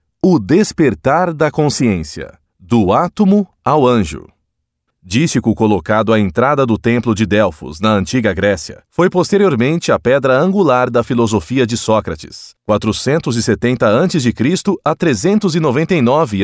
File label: portuguese brazilian audiobooks